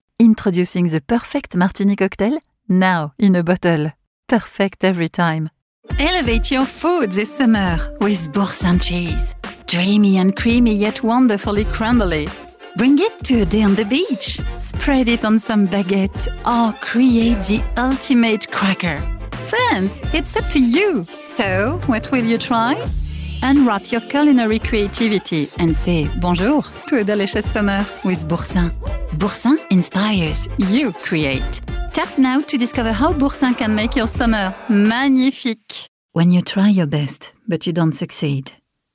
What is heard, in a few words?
French VO English